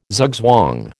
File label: "zugzwang", American